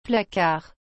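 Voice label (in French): "placard", French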